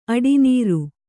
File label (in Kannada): "ಅಡಿನೀರು", Kannada